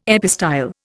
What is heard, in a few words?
"epistyle", Indian